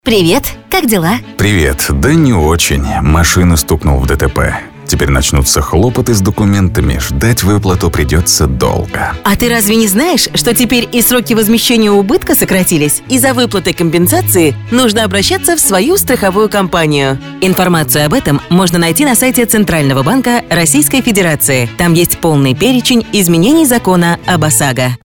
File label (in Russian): Аудиоролик ОСАГО